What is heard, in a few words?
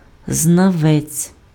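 "знавець", Ukrainian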